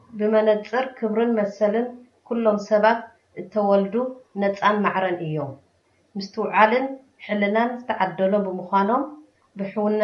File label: udhr tigrinya